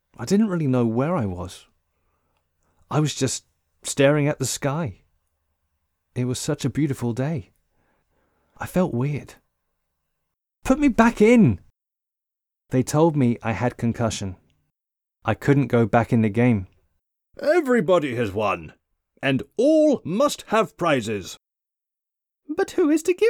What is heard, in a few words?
Voice Over Audiobook